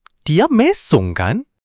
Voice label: indonesian phrases

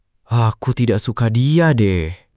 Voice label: indonesian phrases

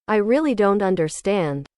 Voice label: english

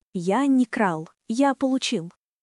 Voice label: Russian, russian phrases insults; ロシア語のネガティブなフレーズ

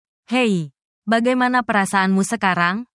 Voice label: indonesia, indonesian negative phrases

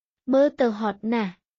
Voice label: Khmer, basic khmer cambodian everyday

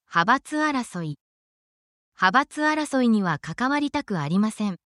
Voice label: essential japanese phrases for your workplace

